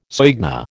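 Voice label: "soigné", American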